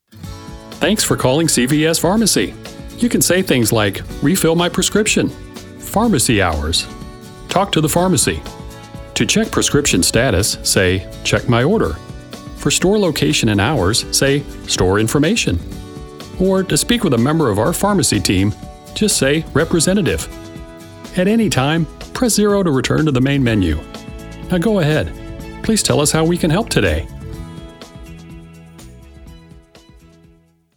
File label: Friendly message; voiceover; voiceover professional in St. Louis, Missouri